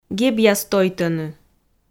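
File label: "гебъяс тойтӧны", Komi, female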